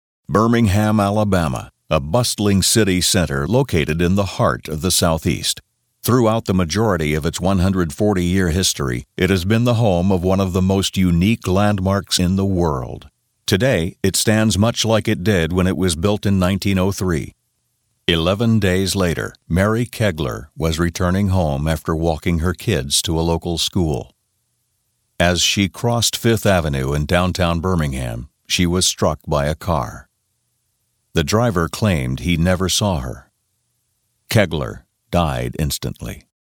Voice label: Narration, narration male